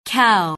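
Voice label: "cow", English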